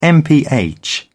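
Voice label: "mph", British English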